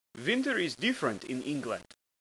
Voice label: winter, german